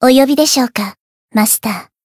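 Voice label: Voice variable chant, Japanese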